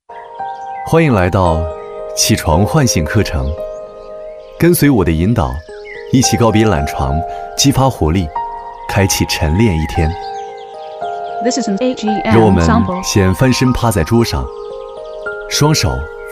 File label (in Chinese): 男 课程解说